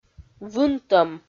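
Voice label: "вынтӧм", Komi, female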